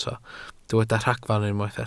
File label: "ragfarn", Welsh